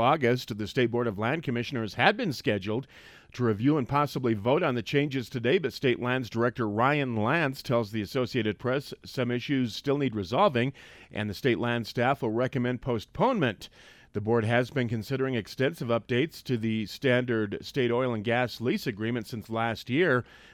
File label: wyoming radio news